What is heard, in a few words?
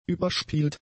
"überspielt", German; adjectives